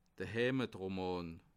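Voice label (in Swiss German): "heimetroman", Bas Rhin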